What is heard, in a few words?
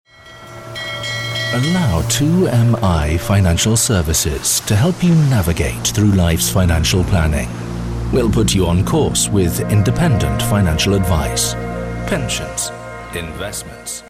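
British English, male, corporate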